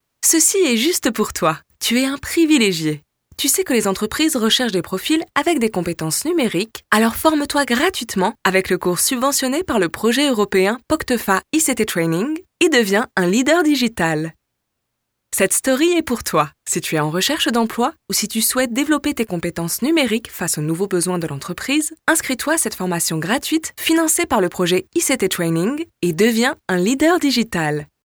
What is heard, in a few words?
FR Female